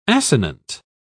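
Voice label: "assonant", British English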